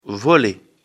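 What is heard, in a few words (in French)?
"voler", French; afrikalan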